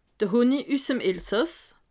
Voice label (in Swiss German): "honni", alsace